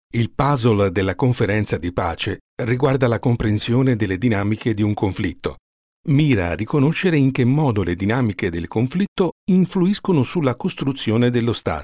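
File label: Italian, eLearning; GoLocalise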